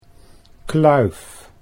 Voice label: "kluif", Dutch